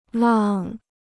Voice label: "浪", Chinese

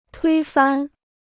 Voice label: "推翻", Chinese

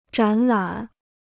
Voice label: "展览", Chinese

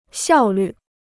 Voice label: "效率", Chinese

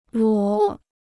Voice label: "裸", Chinese

